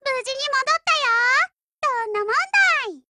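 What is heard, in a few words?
OPERATION OVER, Japanese, Voice; Lusa 0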